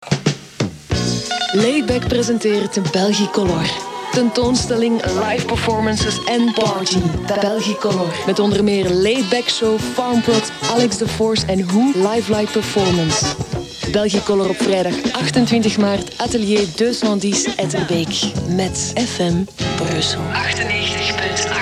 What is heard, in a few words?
belgikolor spot